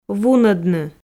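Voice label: "вунӧдны", Komi, female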